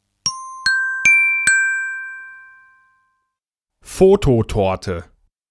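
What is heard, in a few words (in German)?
"Fototorte", German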